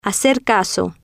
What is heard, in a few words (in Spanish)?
"hacer caso prestar atencion", Spanish